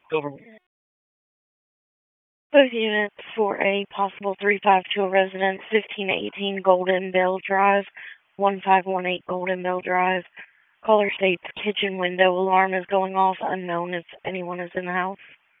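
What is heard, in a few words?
Raleigh Police Southeast District Dispatch, p25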